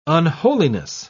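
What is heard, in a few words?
"unholiness", British English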